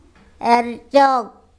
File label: "ErcOk", Andamanese; face